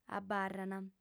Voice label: "abarrant", audiofem